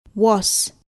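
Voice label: "was", English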